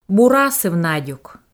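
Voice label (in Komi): "бур асыв надюк", Komi, female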